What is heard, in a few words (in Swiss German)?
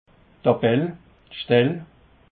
"tabell", alsace